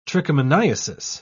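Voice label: "trichomoniasis", British English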